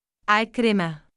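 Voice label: "3ikrimah" male, Arabic; عِكْرِمَة; イクリマ; Ikrimah、Ikrima; 雌（メス）の鳩（ハト）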